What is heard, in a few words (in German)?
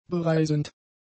"bereisend", German; adjectives